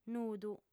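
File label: "nudu", female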